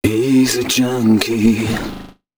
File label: male d#130, spoken hooks; Mutekki Media; Dance Ultra Voice VOL-1